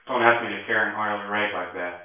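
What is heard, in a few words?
male reverberant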